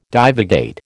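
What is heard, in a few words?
"divagate", American English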